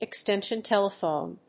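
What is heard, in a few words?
"EXTENSION TELEPHONE", English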